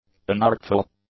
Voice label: "unartful", English; adjectives